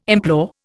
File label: "implore", Indian